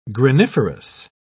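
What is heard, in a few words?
"graniferous", English, British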